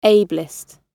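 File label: "ablest", British English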